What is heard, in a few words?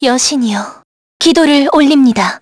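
Vox Skill, Korean; kingsraid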